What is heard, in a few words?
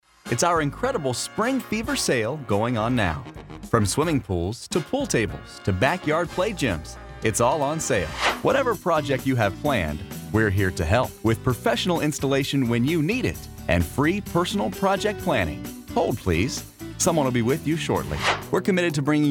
male